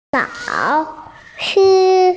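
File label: "teacher", Mandarin Chinese, female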